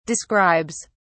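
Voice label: "describes", British English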